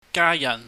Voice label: "gayahn", Cantonese